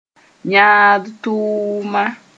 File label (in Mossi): "yãad-tʋʋma", moore